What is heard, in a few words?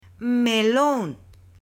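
"melon", Spanish, female; AppLenguaje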